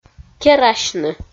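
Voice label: "керасьны", Komi, female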